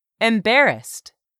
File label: "EMBARRASSED", English; 3000 words